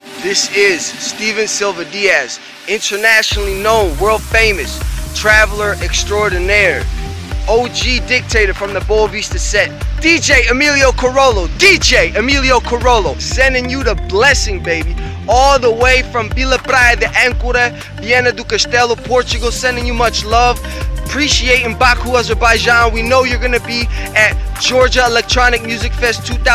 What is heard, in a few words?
gangster speech